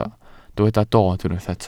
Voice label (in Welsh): "dod", Welsh